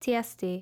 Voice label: female what is it; hellenes